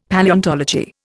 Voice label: "Palaeontology", Indian; Dictionary